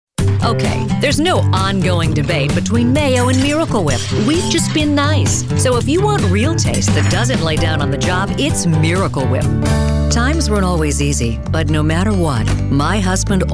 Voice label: Promotional Commercial